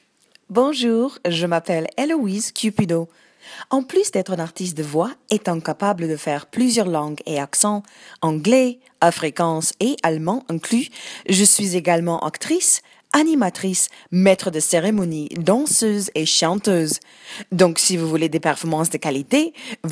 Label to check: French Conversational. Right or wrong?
Right